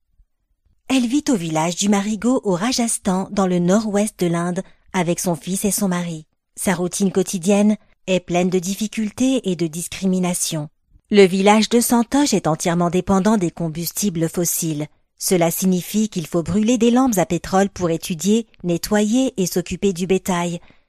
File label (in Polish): reportage